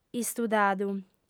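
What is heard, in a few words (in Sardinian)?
"istudadu", Sardinian, female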